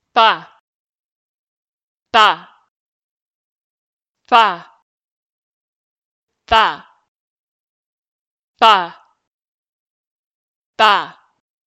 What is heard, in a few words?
compare f th